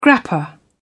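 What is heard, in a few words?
"grappa", British English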